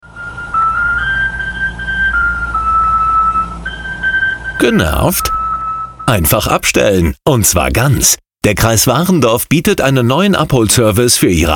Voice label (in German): Radiospot